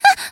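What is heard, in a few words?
Voice damaged Japanese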